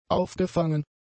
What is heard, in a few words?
"aufgefangen", German; adjectives